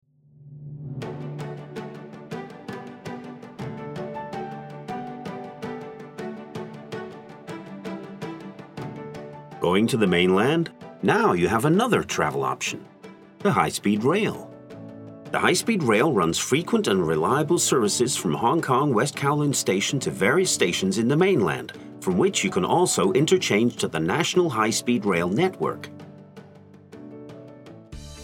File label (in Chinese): 英語男聲; EMBUK-HRS